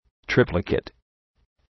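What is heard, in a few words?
"triplicate", English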